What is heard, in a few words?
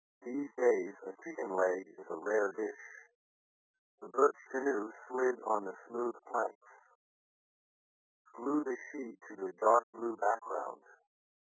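pshift male, shift of frequencies above 1500 Hz with a ratio of -4 semitones, after compression and decompression by the VLC codec at 8000 bps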